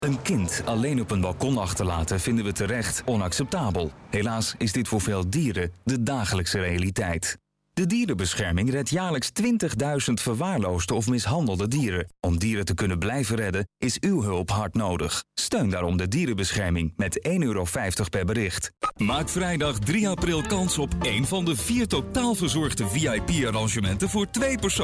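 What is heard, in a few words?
Dutch male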